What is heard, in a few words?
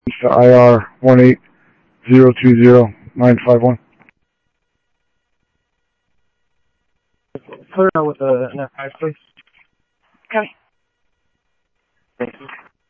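Lakes Sheriff Lake Patrol, scanner audio trunk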